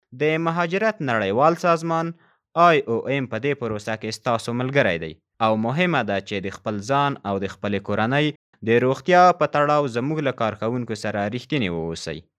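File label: Pashto, male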